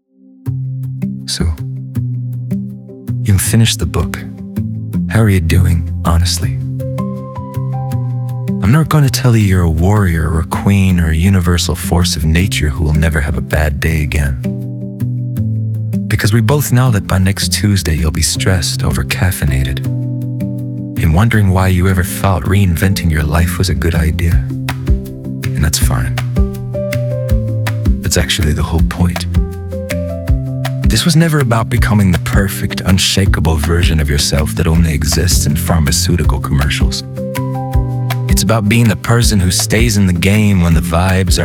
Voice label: Male; conclusion audio